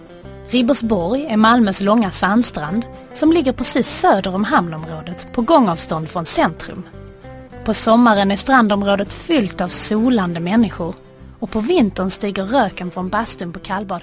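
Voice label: Swedish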